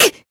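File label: Voice damaged Japanese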